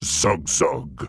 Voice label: Orc Male Guard NPC Greeting

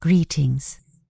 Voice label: Night Elf Female Priestess NPC Greeting

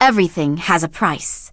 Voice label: Blood Elf Female Standard Vendor

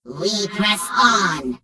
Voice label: Gnome Female Greeting

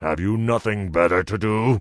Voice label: Tauren Male Elder Pissed; NPC

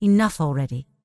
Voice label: Night Elf Female Priestess NPC Pissed

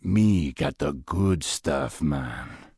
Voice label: Troll Male Standard NPC Vendor

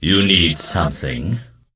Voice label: Death Knight Male Low Greeting

